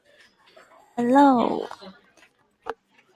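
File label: "aloe", Taiwanese, female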